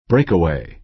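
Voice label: "breakaway", American English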